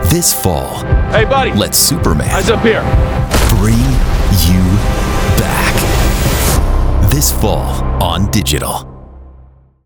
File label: Promo; Superman